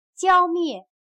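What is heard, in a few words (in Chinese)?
"浇灭", Chinese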